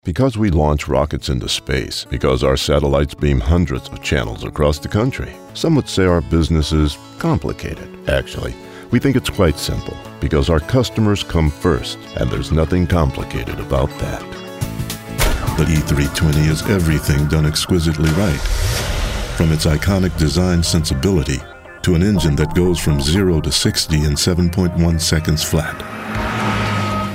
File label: commercials